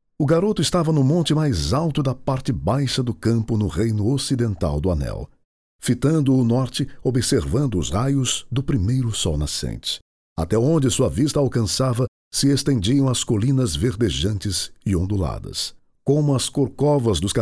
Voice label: portuguese brazilian audiobooks